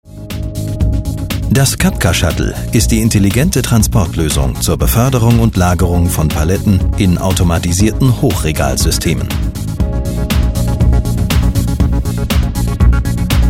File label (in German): industriefilm